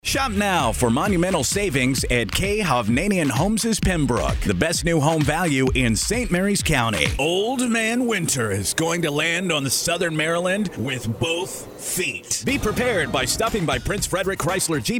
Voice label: Commercial Male

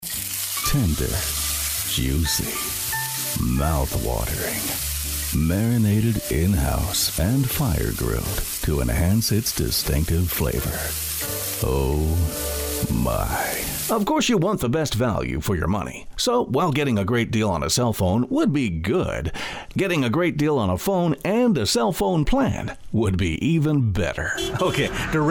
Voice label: Commercial Male

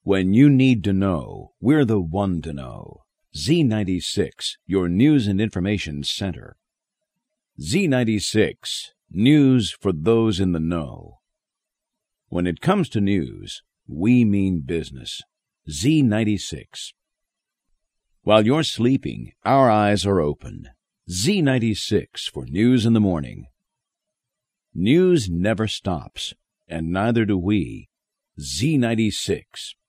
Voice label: Imaging Male

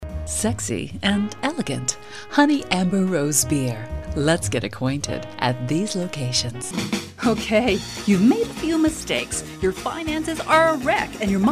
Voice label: Commercial Female

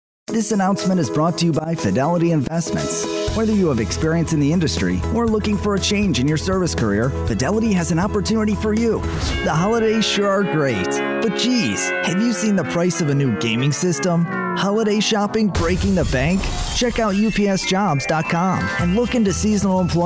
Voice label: Commercial Male

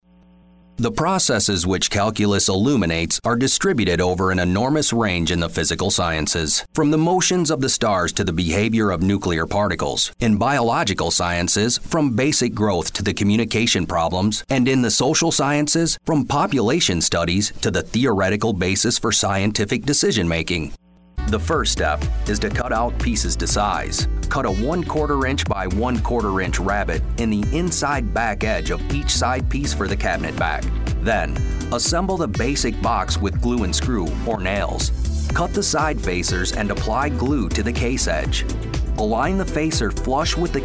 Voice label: Narration Male

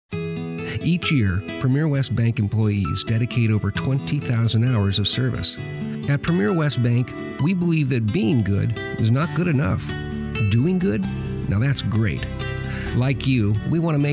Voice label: Commercial Male

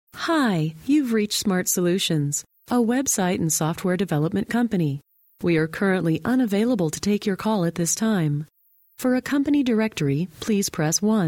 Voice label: Phone Hold IVR Female

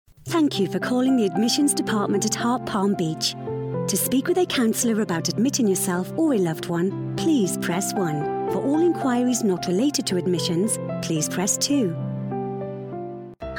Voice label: Phone Hold IVR, Female, british

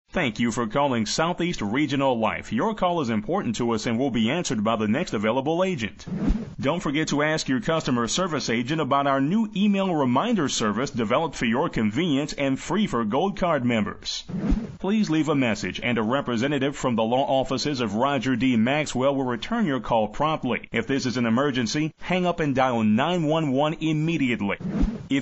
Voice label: Phone Hold IVR Male; Narration, Phone Hold/IVR

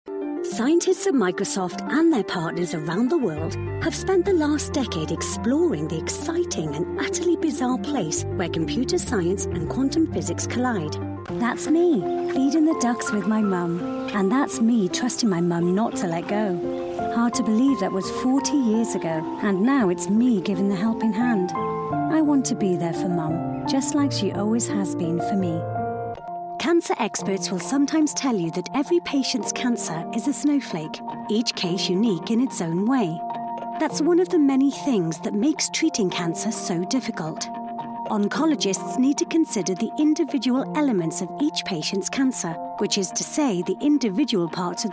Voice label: british voice female narration

